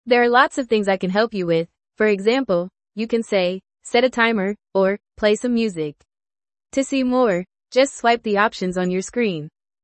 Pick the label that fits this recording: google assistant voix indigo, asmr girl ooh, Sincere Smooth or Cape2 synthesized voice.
google assistant voix indigo